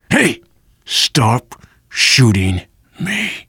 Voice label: friendly fire, voice, biker